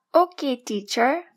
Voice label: girl; grade3